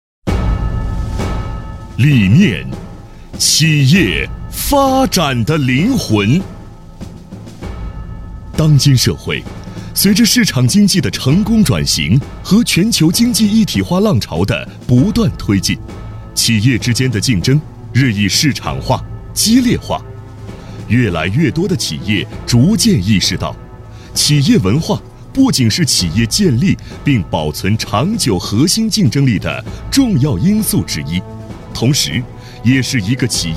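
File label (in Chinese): 【专题】男-浑厚震撼; 市场经济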